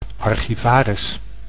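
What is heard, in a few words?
"archivaris", Dutch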